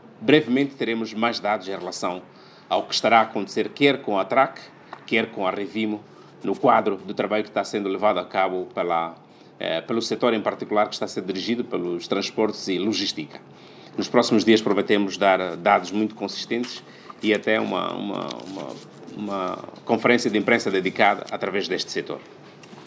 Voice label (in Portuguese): Porta voz do Governo; Inocencio Impissa